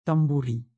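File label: "tamborin", Occitan Languedocien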